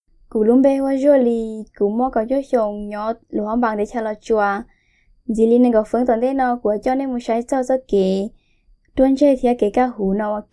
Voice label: Hmong female; Foreign language voice over